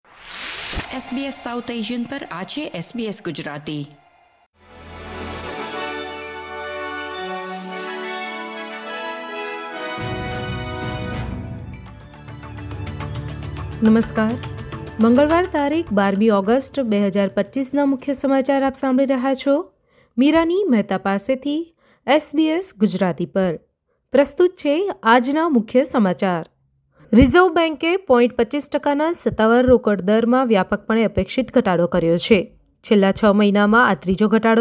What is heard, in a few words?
gujarati news bulletin; SBS Gujarati Australian update: 12 August 2025